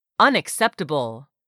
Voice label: "unacceptable", English word; 3000 words